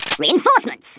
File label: "COLLECT", Stiff Upper Lip